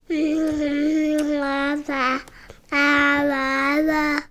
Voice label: human toddler 18 months boy say word gibberish while eating